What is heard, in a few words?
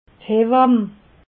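"hewamm", Alsatian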